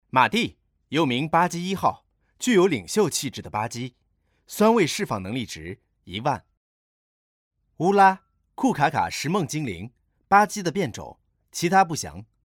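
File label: Chinese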